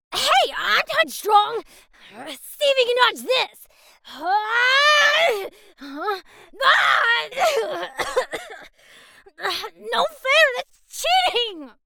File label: Character Sample Stubborn Hasty